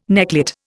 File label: "necklet", Indian